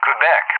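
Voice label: "Quebec", English; JTAC; Alphabet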